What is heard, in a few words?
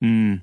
phoneme dinosaur, American; American IPA phonic